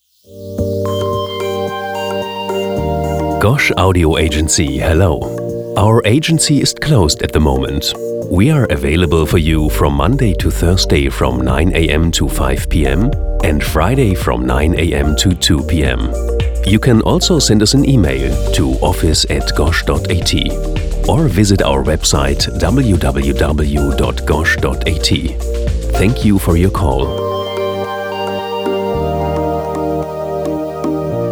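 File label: English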